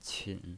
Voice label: "qin3", pinyin